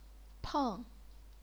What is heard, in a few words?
"peng4", chinese pinyin